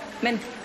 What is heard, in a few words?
Voice Acting es F